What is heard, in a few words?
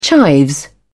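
"chives", English, British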